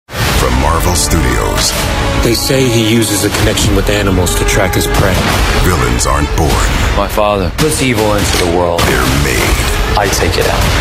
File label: english american movie trailers; a professional English voice actor